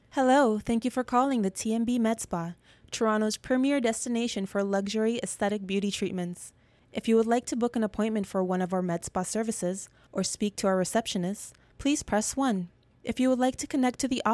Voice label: ivr